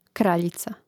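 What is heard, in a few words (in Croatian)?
"kraljica", Croatian; Mrežnik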